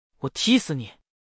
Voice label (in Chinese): 我踢死你, zh cn male